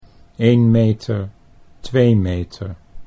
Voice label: "1 meter 2 meter", Dutch